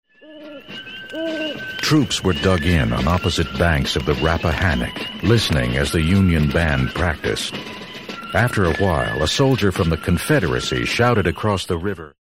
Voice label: narrative